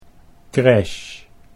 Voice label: "creche", Dutch